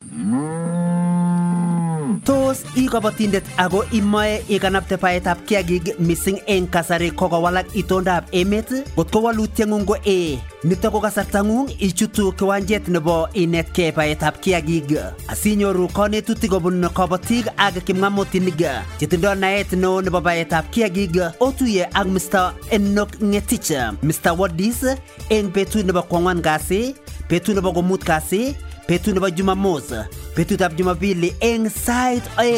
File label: Regional Radio Kalenjin Promos; CSLP